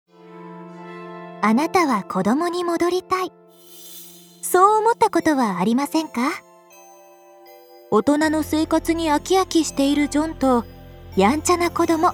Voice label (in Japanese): ナレーション